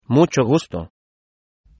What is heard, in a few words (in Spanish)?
meet, es